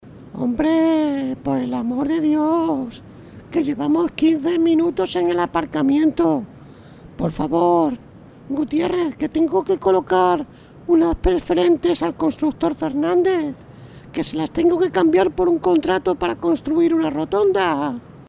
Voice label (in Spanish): anciano locutores voiceovers